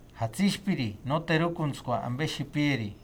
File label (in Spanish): Reaccion alergica, Purhepecha